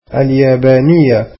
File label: "Japanese", arabic